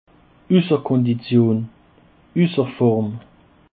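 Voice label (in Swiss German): "usserkondition", Alsatian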